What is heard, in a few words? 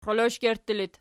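"xxioloshgertti" locative, Archi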